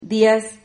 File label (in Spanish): "diaz", Silabas